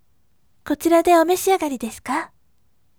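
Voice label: voice, gentle; kotirade omeshiagari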